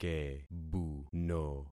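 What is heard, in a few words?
"kebuno", concatenated syllables; Affixing experiment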